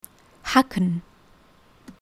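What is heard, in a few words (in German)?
"hacken", German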